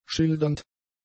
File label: "schildernd", German; adjectives